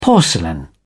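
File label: "porcelain", British English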